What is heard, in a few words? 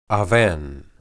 "awen", Hebrew